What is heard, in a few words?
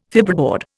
"fibreboard", Indian